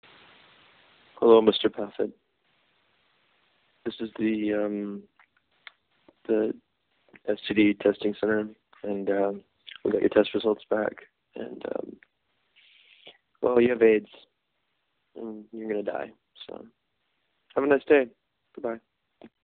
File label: voicemail